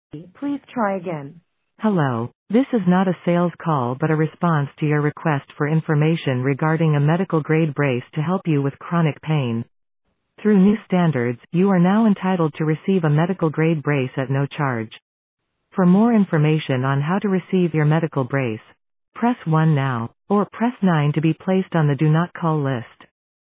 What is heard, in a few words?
Voicemail